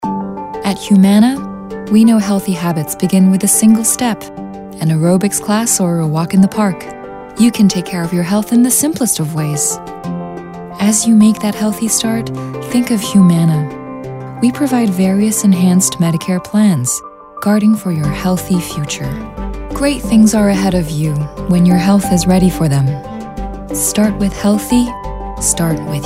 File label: English; Humana